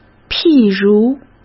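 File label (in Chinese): "譬如", Mandarin Chinese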